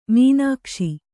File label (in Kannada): "ಮೀನಾಕ್ಷಿ", Kannada